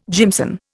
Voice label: "jimson", Indian